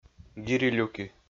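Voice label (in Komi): "гири-люки", Komi, male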